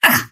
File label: pain small